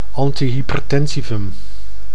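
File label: "antihypertensivum", Dutch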